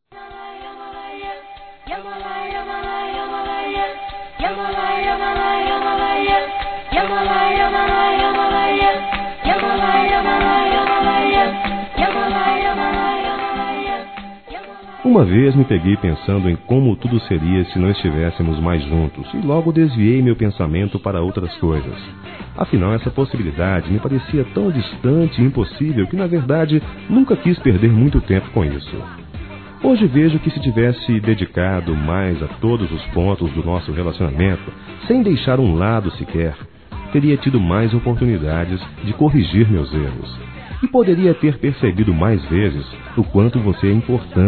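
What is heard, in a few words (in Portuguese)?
reconciliação masculino; Telemensagens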